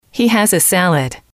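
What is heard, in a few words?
He has a salad, female, English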